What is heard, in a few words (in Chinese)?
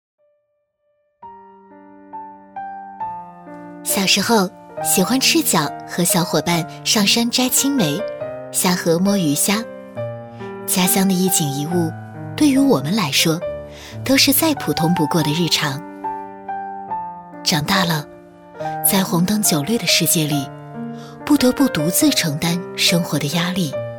家乡生动讲述; 微电影